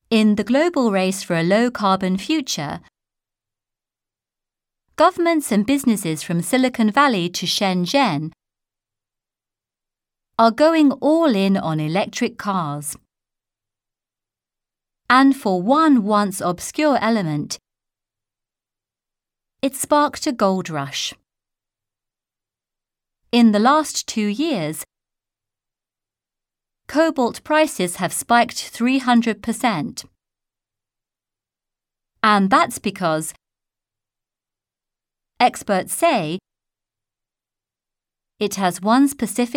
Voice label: news, british english